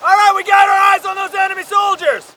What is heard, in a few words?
spot; bf3